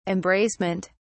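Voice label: "embracement", British English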